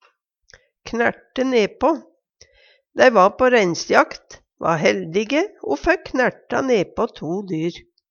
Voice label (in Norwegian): "knerte ne'på", Numedalsmål; Uttrykk; Dei va på reinsjakt, va heldige, o fekk knerta ne'på to dyr.